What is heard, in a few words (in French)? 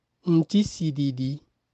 "Jaune" Ewe